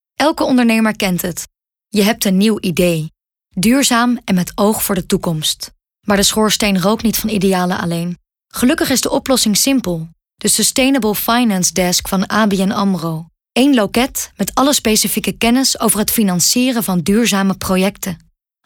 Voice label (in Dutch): Zakelijk